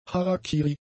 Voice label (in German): "Harakiri", German; adjectives